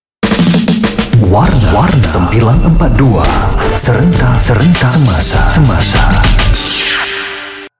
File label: Malay Male; WarnaLiner